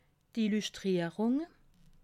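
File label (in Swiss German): "illuchtrierung", Alsatian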